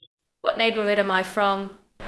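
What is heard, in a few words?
Voice Acting Spanish Female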